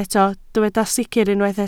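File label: "sicr" Welsh, spoken word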